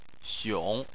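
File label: "xiong", Chinese, third tone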